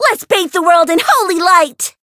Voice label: chant